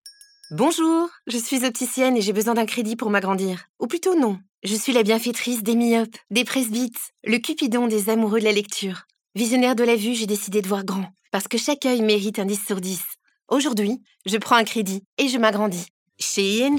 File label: french promos